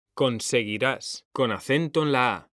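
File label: "CONSEGUIRÁS", Spanish; mekanta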